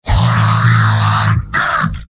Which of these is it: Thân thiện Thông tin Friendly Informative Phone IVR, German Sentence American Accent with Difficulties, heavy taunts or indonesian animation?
heavy taunts